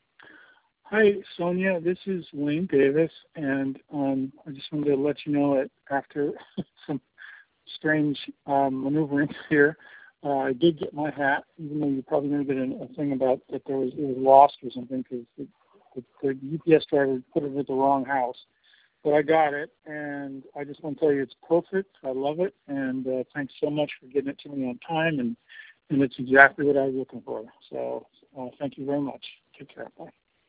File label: voice message